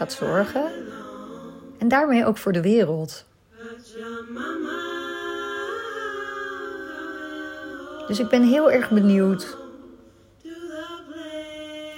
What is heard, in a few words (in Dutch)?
Meditatie adem kou naar meer energie; adem koudetraining; 7 dagen adem koudetraining dag 3